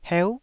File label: "heu9", taiwanese